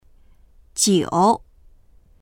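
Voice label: "jiu3", Chinese; 久; 久しい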